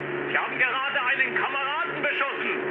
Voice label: player killed ally, mood med, speech ge